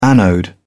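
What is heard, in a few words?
"anode", British English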